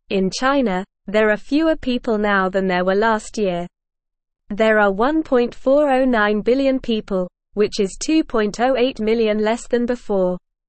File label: English Newsroom Beginner NORMAL Reading